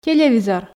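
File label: "телевизор", Komi, female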